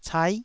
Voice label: "cai9", taiwanese Syllables